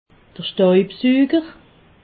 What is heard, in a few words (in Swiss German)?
"staubsuger", alsace